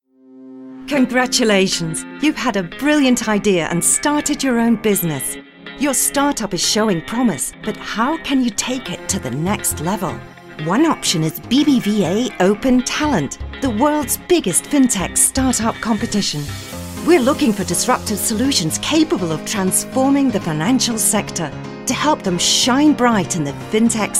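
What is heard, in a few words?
corporativo, British English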